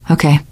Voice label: yes, teengirl; survivor voice